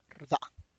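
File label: tlacochahuaya, Zapotec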